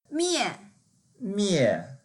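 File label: "mie4", Mandarin Chinese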